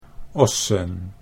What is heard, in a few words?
"ossen", Dutch